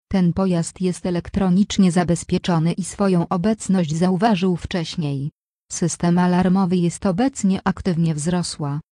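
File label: Polish, camper alarm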